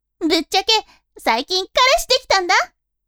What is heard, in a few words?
woman